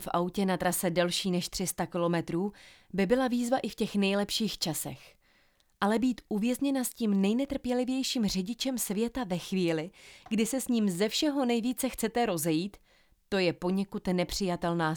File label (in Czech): čte úryvek z knihy; Víkend s panem Darcym